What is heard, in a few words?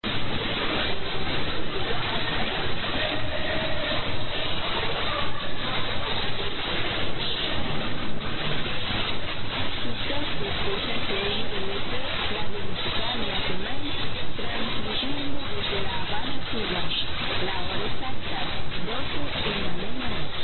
Radio Musical Cuba